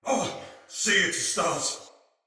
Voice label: See Ally Die, Soldier Male; Battle; Barks; Dialog; Sinecure Soldier Male B